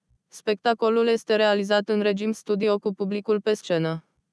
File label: synth